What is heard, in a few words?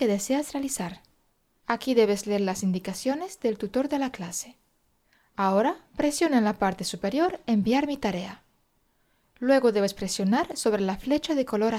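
European Spanish, E-Learning; GoLocalise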